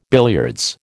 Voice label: "billiards", American

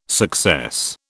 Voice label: "success", British

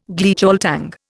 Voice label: "Gleichschaltung", Indian

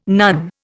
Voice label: "nun", Indian

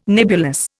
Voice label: "nebulous", Indian

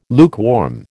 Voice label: "lukewarm", American

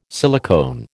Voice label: "silicone", American

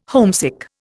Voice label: "homesick", Indian

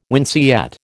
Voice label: "winceyette", American

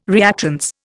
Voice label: "reactance", Indian

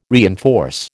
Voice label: "reinforce", American

